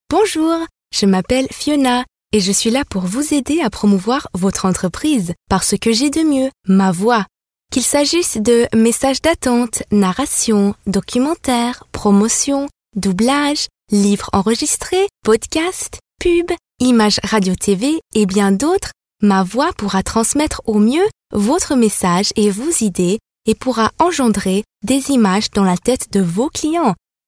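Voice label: French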